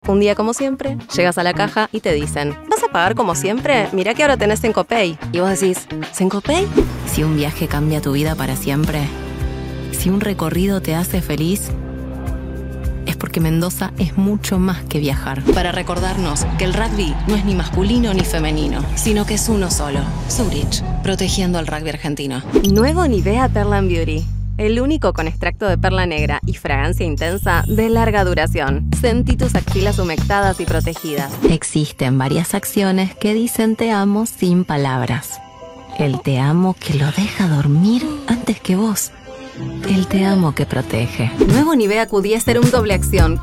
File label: spanish argentinian commercial, Argentinian Spanish Voice Actors